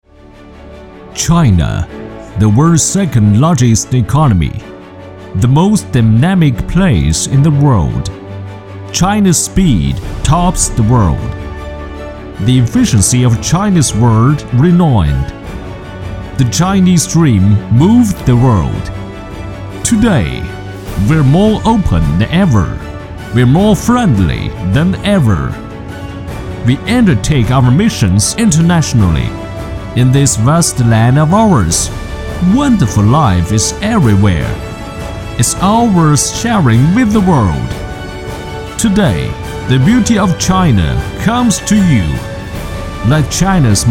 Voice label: 男 英语片头 震撼; 今日中国

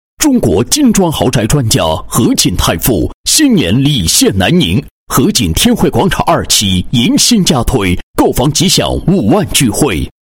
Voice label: 男 宣传广告 建材家居广场; 保利建材家居广场

